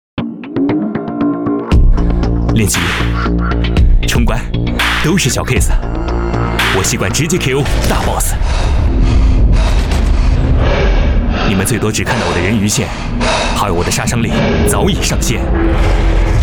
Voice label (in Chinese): 男国 广告 服装 年轻; 鸿星尔克